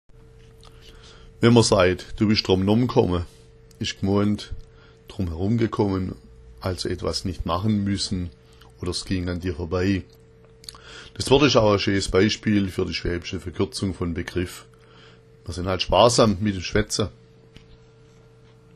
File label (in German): drommnomm, schwaebisch